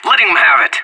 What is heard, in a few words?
in combat, player vo anarchist; Counter-Strike Global Offensive